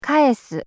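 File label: "kaesu", Japanese; nakama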